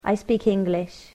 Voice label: "I speak English", English